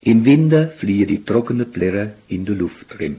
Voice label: sudhessisch

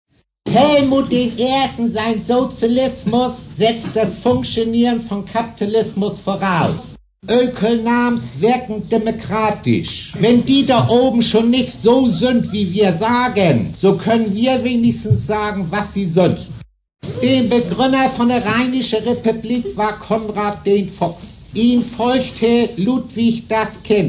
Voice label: Missingsch

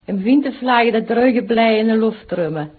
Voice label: ostpommersch

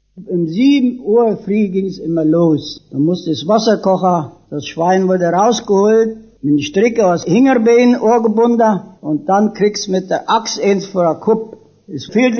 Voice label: Nd. Schlesisch

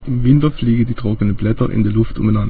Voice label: niederalemanisch

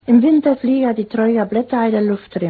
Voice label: schlesisch

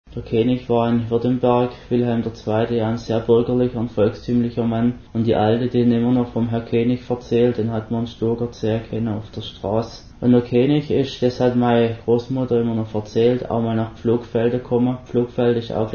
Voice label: Schwaeb Möglingen

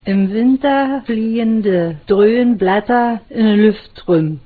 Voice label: brandenburgisch

